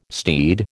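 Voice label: "steed", American English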